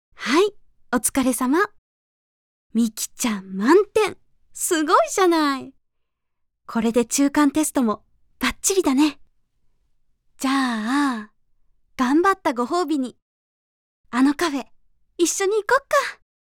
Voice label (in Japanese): 家庭教師のお姉さん